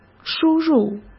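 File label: "输入", Mandarin Chinese; chinese-flashcards; hsk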